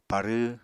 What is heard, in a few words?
"parw", Apatani